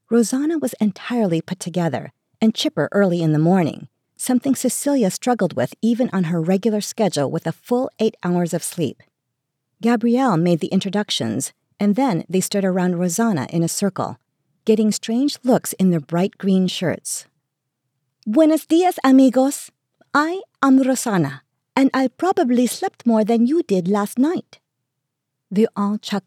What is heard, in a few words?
Travel Romance 3rd Person Spanish Accent